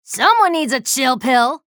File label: chatter neutral; slash